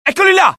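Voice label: alert, Italian; mohaa; dialogue; sighted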